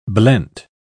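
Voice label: "blent", British English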